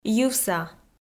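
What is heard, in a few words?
"йывса", Komi, female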